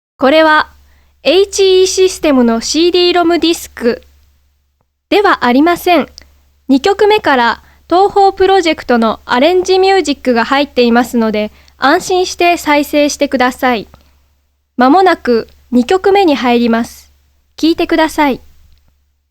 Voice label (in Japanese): アナウンス